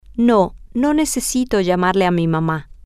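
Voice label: No I don't need to call my mom, Spanish, female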